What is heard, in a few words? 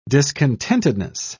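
"discontentedness", English, British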